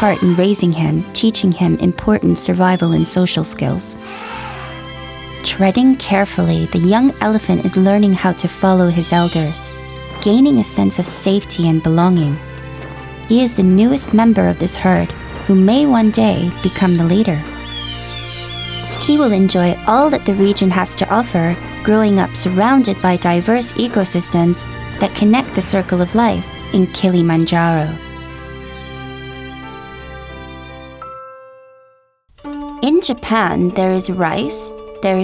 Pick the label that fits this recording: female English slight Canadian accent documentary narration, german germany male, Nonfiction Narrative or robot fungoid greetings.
female English slight Canadian accent documentary narration